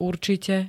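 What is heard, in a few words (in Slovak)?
"určite", Slovak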